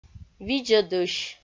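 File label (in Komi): "видзӧдысь", Komi, female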